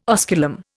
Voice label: "osculum", Indian